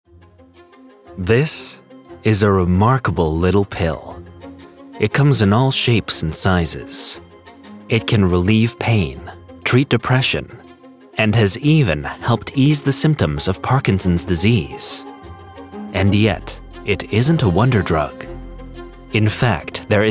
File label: doco